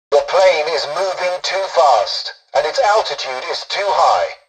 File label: tutorial dialogue, english; War Thunder